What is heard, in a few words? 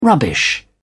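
"rubbish", British English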